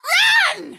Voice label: strider run, female; npc; Garry's Mod; hl2